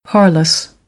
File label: "parlous", American English